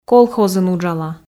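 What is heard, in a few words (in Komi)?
колхозын уджала, Komi, female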